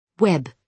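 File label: "web", British English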